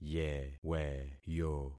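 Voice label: "yeweyo", concatenated syllables; Affixing experiment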